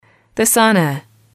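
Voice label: "the sauna", English, female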